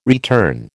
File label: "return", American